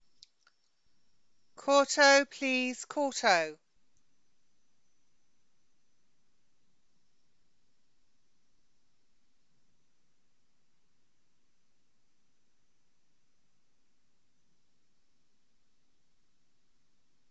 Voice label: Voice over for Equitation Classes